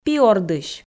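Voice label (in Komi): "пи ордысь", Komi, female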